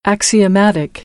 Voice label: "axiomatic", American English